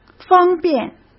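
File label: "方便", Mandarin Chinese; hsk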